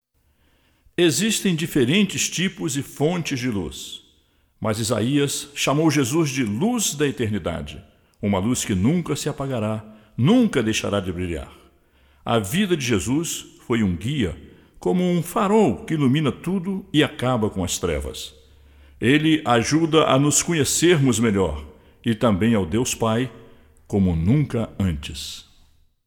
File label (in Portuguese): Narração